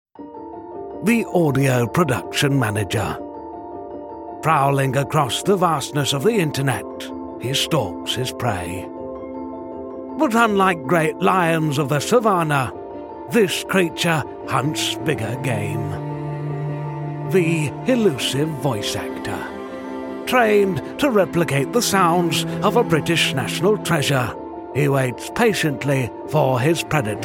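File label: Corporate Promotion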